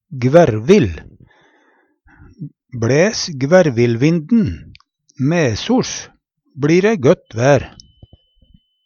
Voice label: gvervill, Numedalsmål

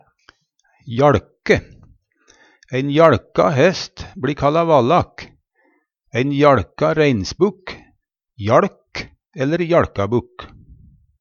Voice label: jaLke, Numedalsmål

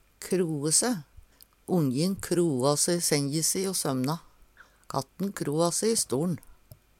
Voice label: kroe se, Numedalsmål

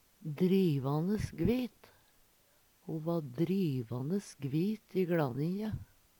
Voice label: drivanes gvit, Numedalsmål

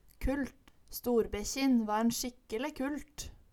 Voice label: kullt, Numedalsmål

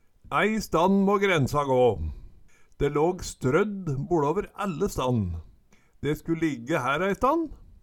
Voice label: stann, Numedalsmål

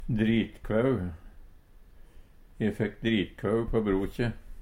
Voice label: "dritkvau", Numedalsmål

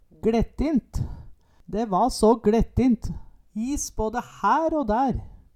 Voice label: "gLettint", Numedalsmål

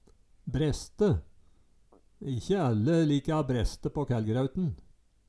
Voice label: breste, Numedalsmål

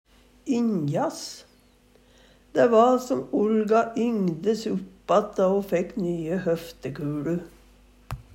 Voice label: ynjas, Numedalsmål; Dæ va som Olga yngdes uppatt då ho fækk nye høftekuLu.

